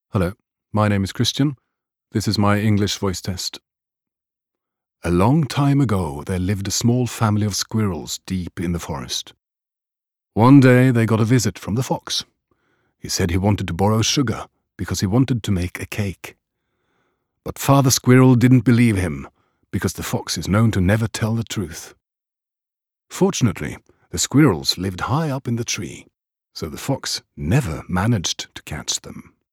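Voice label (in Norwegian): Norwegian engelsk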